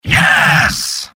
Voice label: Spy high five success; mvm

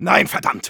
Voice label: no, de; Engineer

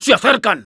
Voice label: incoming, es

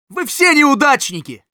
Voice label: taunts, ru

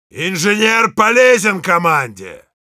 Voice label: thanks for the teleporter, ru; Heavy

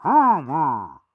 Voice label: good job, fr; Pyro

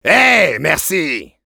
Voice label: thanks, fr; Demoman; "Hey, merci."

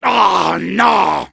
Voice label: Soldier negative vocalization, fr

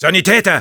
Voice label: medic, de; Sniper

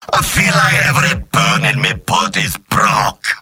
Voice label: jeers; Demoman; mvm

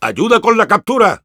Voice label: help me capture, es; Engineer

